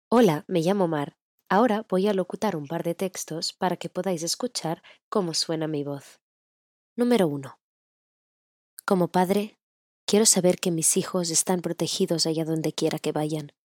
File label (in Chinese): 西班牙语女 外语 小语种